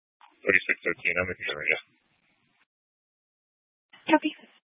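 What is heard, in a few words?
Police Dispatch, Scanner audio recording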